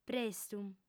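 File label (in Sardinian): "prestu", female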